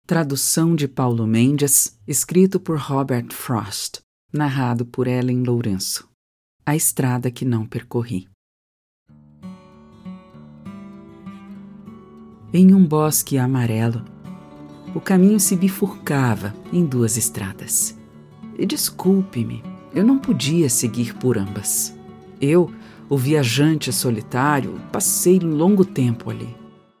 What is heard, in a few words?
portuguese brazilian commercial; brasilianische Sprecherin und Synchronsprecherin